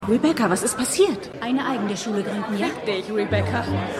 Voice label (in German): Mutter; WeCrashed